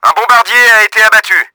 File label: BOMBER DOWN, french; Battle Engine Aquila; XBOX